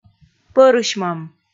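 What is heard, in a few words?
"пӧрысьмӧм", Komi, female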